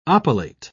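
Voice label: "oppilate", British English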